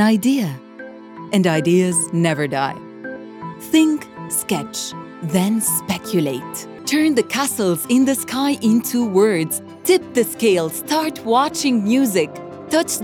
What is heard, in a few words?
English; Slated